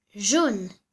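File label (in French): "jaune", French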